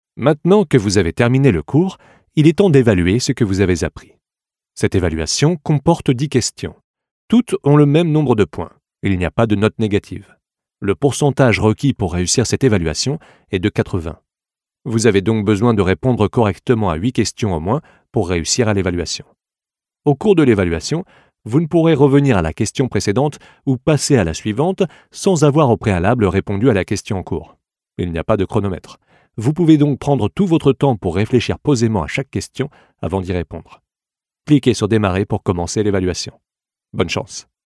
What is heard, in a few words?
e-learning; Schneider Electric Kaizen Blitz; French voice-over